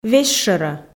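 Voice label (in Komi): "весьшӧрӧ", Komi, female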